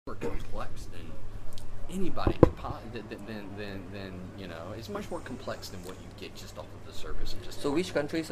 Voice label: Singlish